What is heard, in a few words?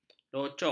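"locok", Malay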